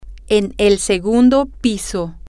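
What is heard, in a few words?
on the second floor, Spanish, female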